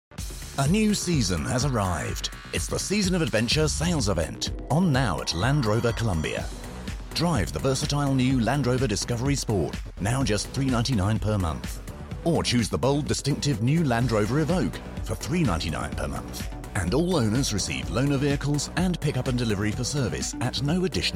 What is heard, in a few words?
Commercial showreel